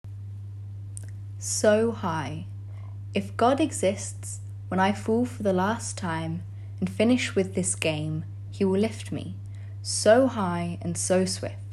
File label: reading; So High; Translated by Harvey Moon (UCL-University College London)